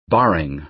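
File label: "barring", American English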